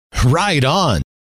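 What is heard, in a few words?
right on, male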